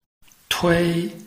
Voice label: "tui1", Chinese

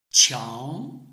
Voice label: "qiang2", Chinese

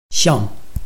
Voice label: "xiang4", Chinese

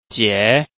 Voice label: "jie2", Chinese

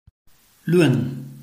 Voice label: "lun4", Chinese

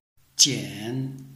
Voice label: "jian3", Chinese

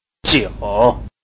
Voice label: "jiu3", Chinese

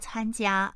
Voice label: "can1jia1", Chinese

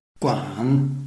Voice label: "guan3", Chinese

